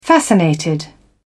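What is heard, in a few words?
"fascinated", British English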